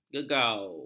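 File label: "gegau", Malay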